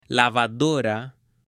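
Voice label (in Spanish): "lavadora", Spanish, male; MUEBLES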